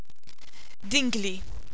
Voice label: dingli, Maltesisch